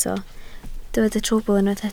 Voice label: "trwbl", Welsh